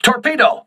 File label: voice message torpedo, crew ships english